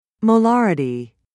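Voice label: "molarity", British English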